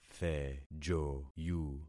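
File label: "thejoyu", concatenated syllables; Affixing experiment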